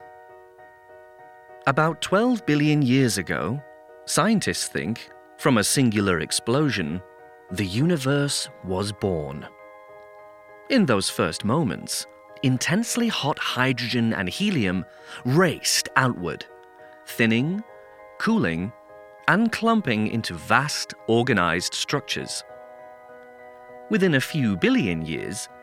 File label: Narrative; 9 Planets